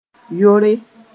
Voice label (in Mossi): "yore", moore